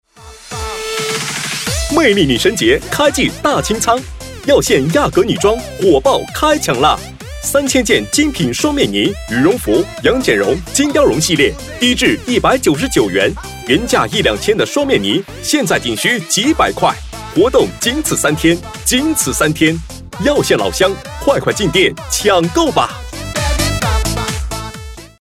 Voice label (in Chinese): 男, 促销, 女装, 年轻, 活力